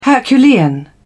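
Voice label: "herculean", British English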